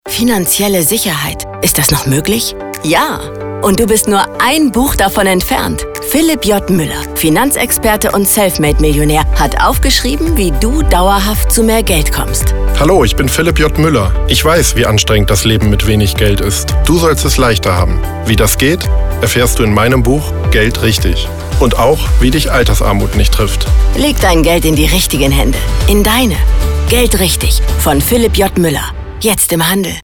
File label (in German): Radiospot; Geldrichtig; Spot zum Buch „GeldRICHTIG“ von Philipp J. Müller auf Radio Hamburg (Dauer 0:30 Min, 721 KB)